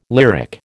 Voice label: "lyric", American